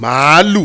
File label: "maalu", Yoruba; CONSONANTS; maalu-mariwo-omo